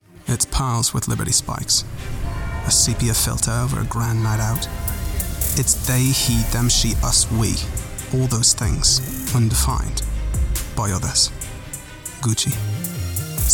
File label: Commercial; Gucci Be A Perfect Problem